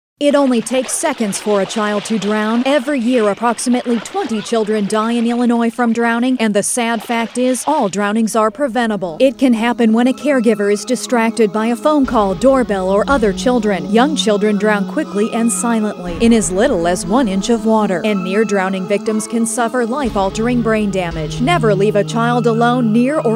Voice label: public service announcements; drown; DCFS